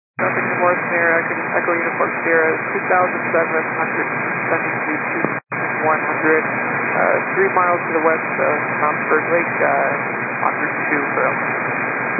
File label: 122 925MHz, scanner radio